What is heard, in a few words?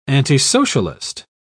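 "antisocialist", British English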